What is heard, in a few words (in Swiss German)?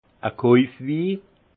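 "kojfwi", alsace Haut-Rhin; vin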